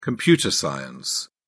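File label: "computer science", English, British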